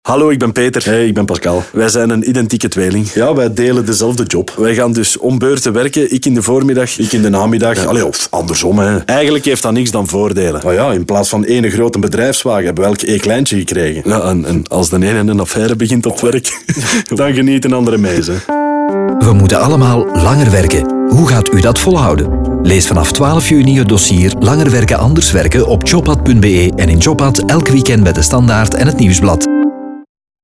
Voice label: radio commercial